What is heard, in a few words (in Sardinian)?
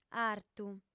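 "artu", female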